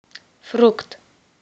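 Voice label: fruit, Romanian; PictureDictionary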